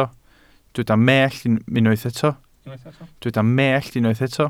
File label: "mellt", welsh